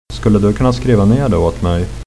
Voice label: write, swedish

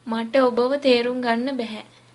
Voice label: say again, sinhala

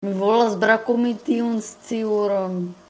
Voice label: squirrel, esperanto

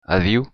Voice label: hello, occitan; Adieu!

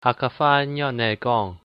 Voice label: how to say, hakka

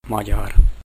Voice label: hungarian

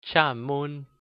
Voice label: excuse me, hakka

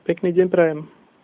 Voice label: have a nice day, slovak

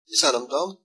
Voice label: my name is, irish; ... is ainm dom

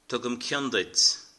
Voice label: i love you, irish

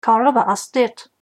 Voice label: "where from", Irish

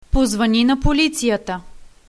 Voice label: police, bulgarian

